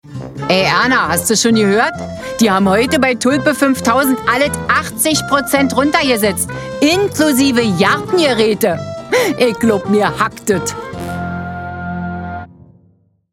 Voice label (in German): Werbung; Tulpe 5000